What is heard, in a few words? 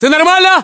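status check, battlechatter, Russian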